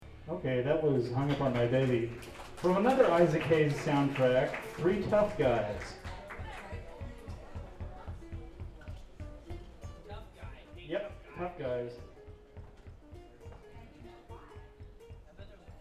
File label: banter; One World - December 2 2000